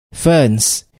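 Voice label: "ferns", English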